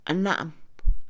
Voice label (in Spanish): Mixe, Mujer; mandar; INALI